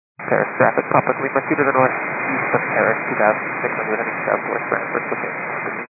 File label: 122.925 MHz; scanner radio